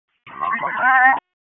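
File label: Public Safety Tactical, p25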